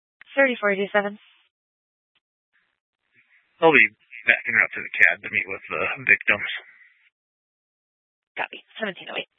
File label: Police Department Dispatch, scanner